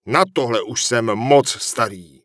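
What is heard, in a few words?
Human Male Official NPC Vendor, Czech; wowpreklad; czwow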